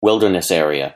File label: "wilderness area" noun